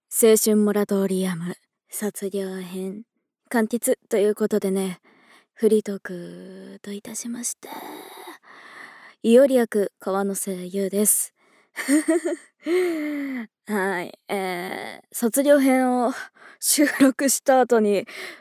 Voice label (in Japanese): フリートーク; 卒業編